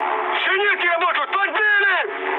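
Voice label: Russian damaged aa mood high, speech; War Thunder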